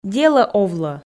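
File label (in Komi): "делӧ овлӧ", Komi, female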